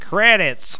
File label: Redneck Credits, voices; blackjack